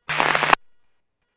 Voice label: Fire Dept, scanner; RMFD F-5; Rural-Metro Fire